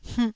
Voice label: "hmh1", Taiwanese syllable